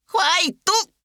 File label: Vo Male Fight; gekiban